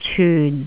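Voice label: "cyun4", Cantonese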